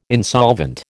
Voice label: "insolvent", American